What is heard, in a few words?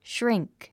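"shrink", English word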